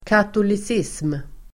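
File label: "katolicism", Swedish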